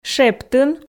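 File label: "шептын", Komi, female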